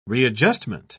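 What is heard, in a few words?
"readjustment", British English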